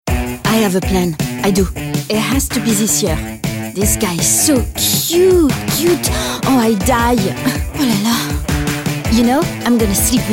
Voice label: female non native english wear comercial; ideasonora